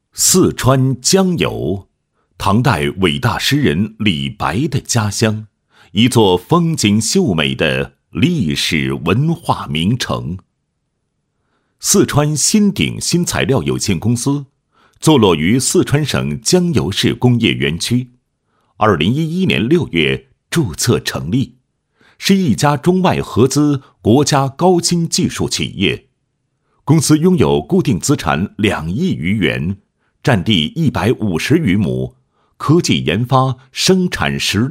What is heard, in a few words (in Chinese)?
男 企业 宣传片解说词, 配音; 鑫鼎新材料有限公司